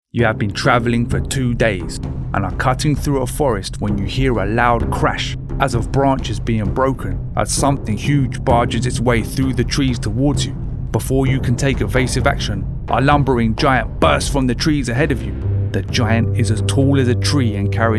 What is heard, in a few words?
Animation